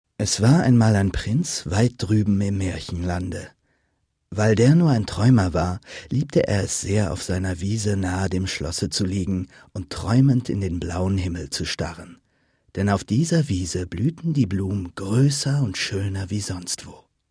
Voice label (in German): erzaehlung